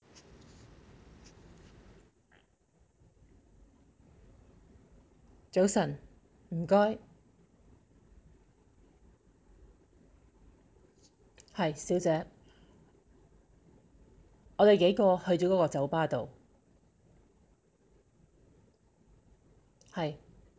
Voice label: full cantonese